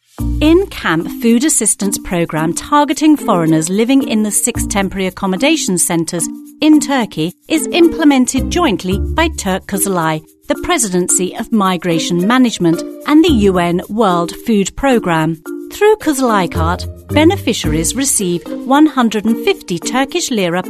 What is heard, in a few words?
english british voice over female ivr